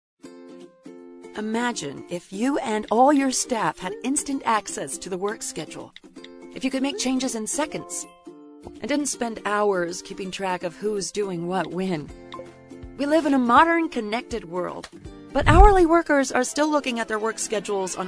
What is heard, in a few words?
Narration